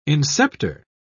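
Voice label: "inceptor", British English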